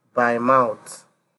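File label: "by mouth", Nigerian Pidgin, male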